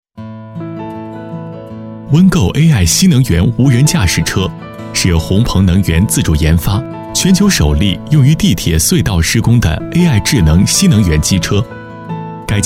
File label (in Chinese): 男 宣传片 科技感; 天下医森